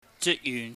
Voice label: "jikyuhn", Cantonese